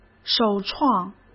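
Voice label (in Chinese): "首创", Mandarin Chinese; hsk; chinese-flashcards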